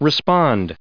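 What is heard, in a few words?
"respond", American English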